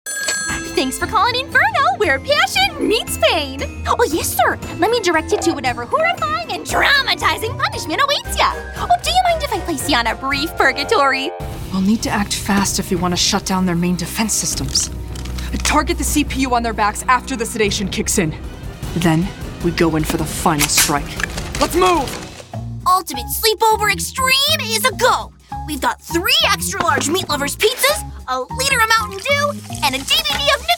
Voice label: Voiceover Commercial Women; Chicago

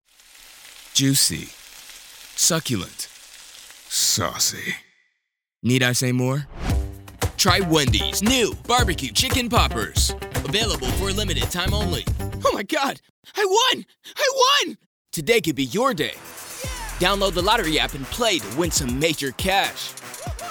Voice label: voiceover commercial men; new york

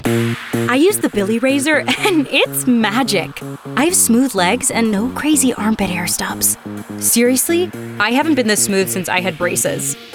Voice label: Voiceover Commercial Women; Commercial Demo; new york

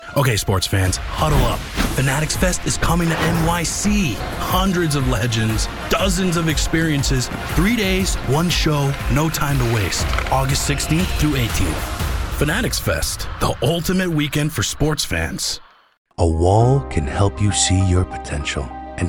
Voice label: voiceover animation; new york

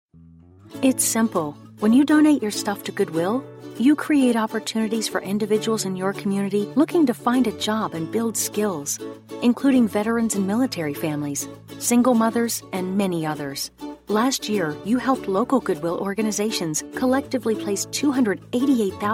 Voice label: voiceover narration women; new york

